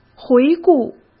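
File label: "回顾", Mandarin Chinese; chinese-flashcards; hsk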